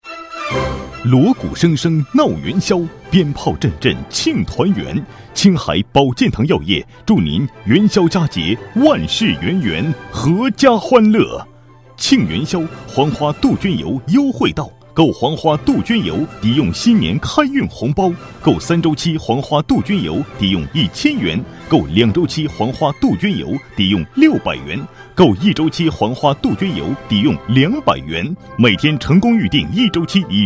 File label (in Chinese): 男 药品 浑厚